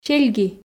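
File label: "сельги", Komi, female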